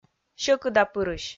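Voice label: "сьӧкыдапырысь", Komi, female; kpv audio dict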